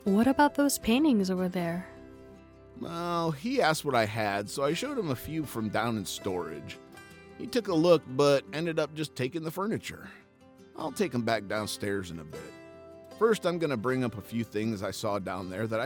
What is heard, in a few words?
Audio Drama